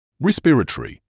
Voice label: "respiratory", English

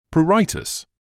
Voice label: "pruritus", English

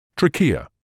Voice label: "trachea", medical English

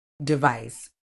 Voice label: "device", uk

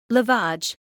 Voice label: "lavage", medical English

